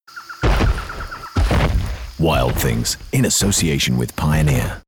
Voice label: commercial; Wild things Pioneer